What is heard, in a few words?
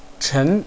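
"chen2", Mandarin Chinese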